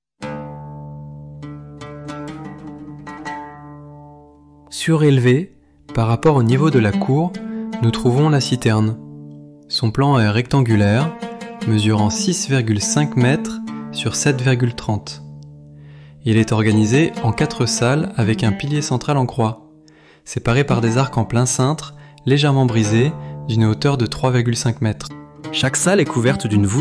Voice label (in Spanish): audioguia frances; Ruta audioguiada; Français